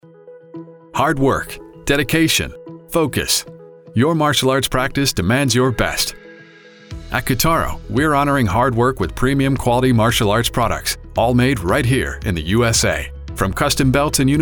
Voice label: Narration Demo